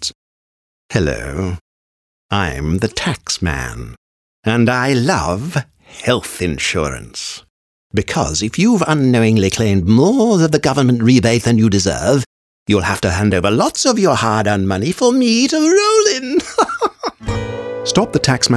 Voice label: Voice Actor; BigMouth Voices

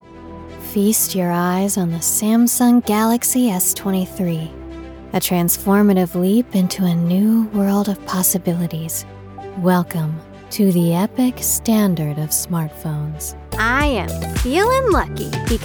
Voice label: Commercial

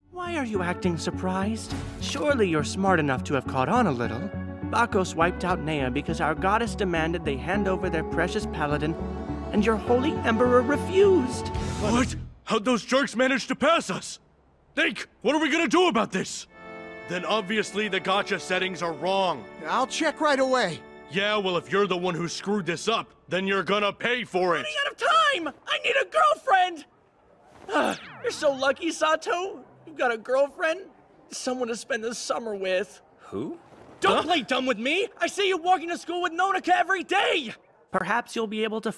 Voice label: Animation

